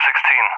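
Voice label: "16", English speech; Numbers; Allied Flight; DCS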